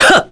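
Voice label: vox get, korean; voices; heroes; Arch; kingsraid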